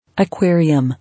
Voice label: "Aquarium", English

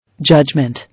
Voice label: "Judgment", English

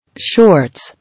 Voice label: "Shorts", English

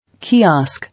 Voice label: "Kiosk", English

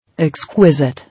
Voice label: "Exquisite", English

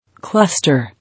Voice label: "Cluster", English

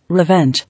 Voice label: "Revenge", English

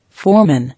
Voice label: "Foreman", English

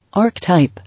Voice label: "Archtype", English

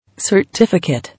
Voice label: "Certificate", English

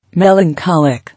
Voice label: "Melancholic", English

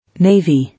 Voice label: "Navy", English

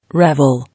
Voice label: "Revel", English

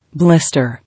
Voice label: "Blister", English

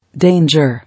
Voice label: "Danger", English

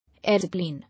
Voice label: "Aeroplane", English

